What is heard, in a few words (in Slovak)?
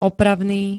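"opravný", Slovak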